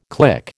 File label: "click", American